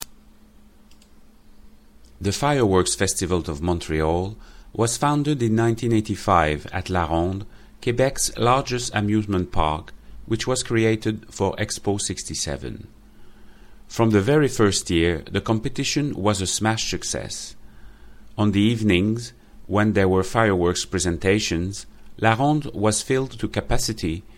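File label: Canadian French accented English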